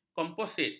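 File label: "komposit", Malay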